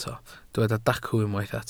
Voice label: "dacw", Welsh